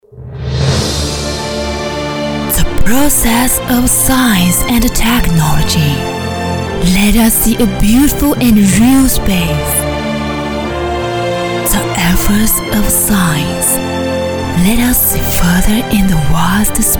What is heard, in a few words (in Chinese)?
明媚 英语 游戏 片头